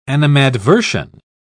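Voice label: "animadversion", British English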